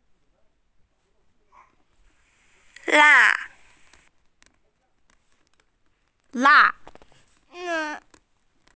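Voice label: "la4", Mandarin Chinese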